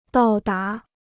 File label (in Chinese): "到达", Chinese